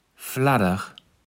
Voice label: "Fladder", Platt

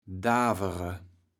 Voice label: "davere", kaevels platt

